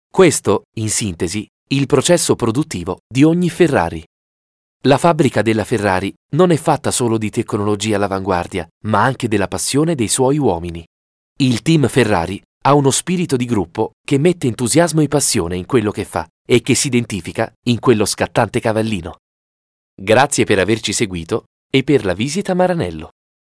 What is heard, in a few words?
corporate